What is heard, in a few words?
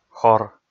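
"хор", Komi, male; kpv audio dict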